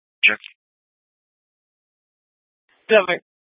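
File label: Police Department North Dispatch, scanner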